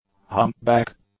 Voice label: "humpback", English; nouns